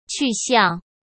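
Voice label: "去向" Mandarin Chinese; hsk; chinese-flashcards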